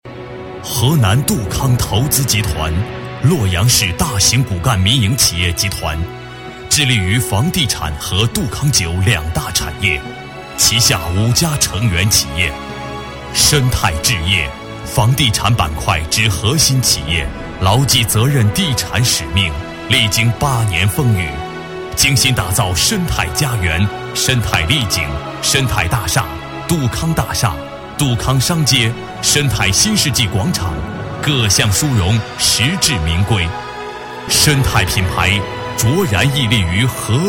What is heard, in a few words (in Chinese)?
男40 大气专题, 国语男声配音